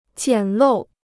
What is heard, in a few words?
"简陋", Chinese